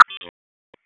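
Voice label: Police District Dispatch, p25; wake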